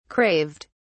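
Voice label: "craved", English, British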